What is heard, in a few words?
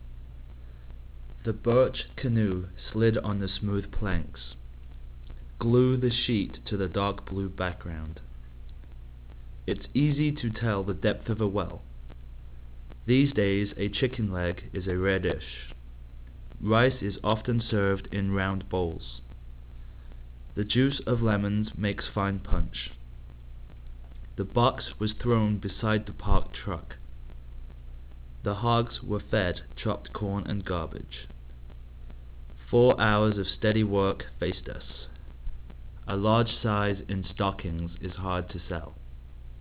male